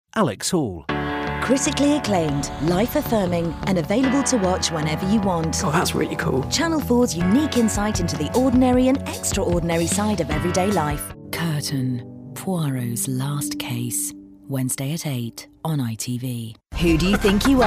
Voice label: promo